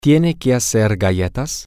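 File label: Spanish, male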